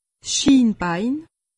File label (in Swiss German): "schienbein", alsace; medecine